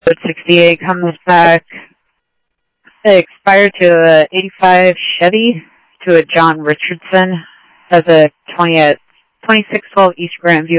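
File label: police scanner radio, Phoenix PD; ThompsonPeakHigh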